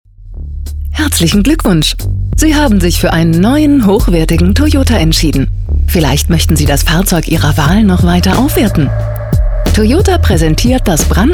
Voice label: Medley Werbung

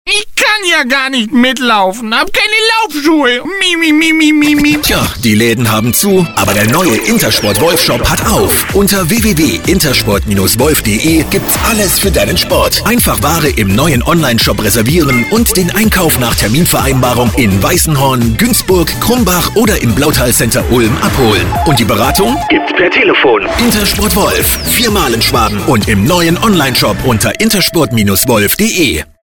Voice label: Werbung Sportshop

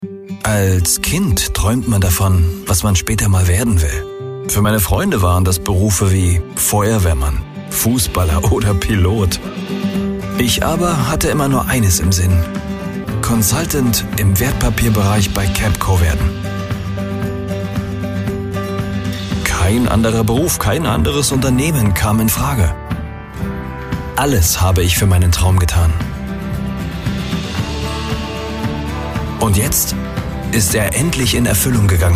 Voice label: Off Imagefilm nachdenklich sanft; CAPCO

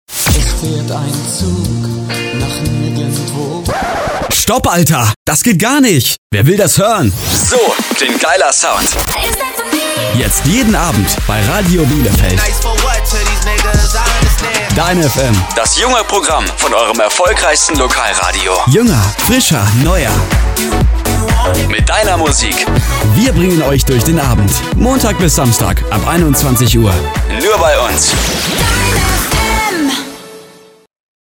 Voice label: Trailer; deinfm

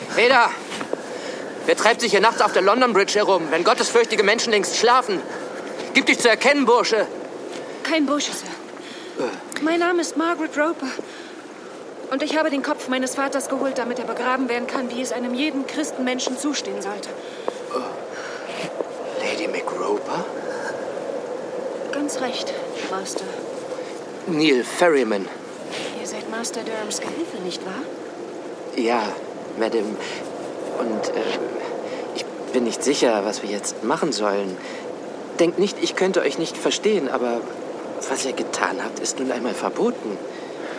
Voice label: Hörspiel; Waringham Saga

